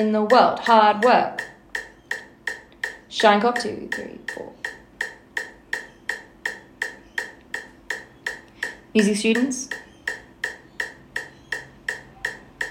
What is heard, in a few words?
DANCE Spoken in rhythm (165bpm); Spoken Recordings; Fame The Musical - Holiday Pack